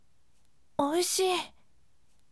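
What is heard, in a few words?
voice, firm; oishii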